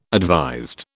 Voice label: "advised", British